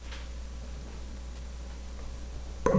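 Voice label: "beam", spoken word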